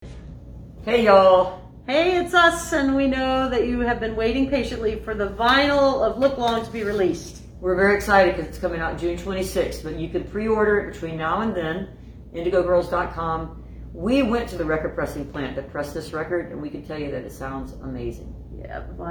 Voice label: vinyl release announcement